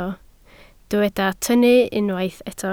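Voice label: "tynnu" Welsh, spoken word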